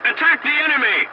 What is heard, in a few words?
voice message attack target, english; War Thunder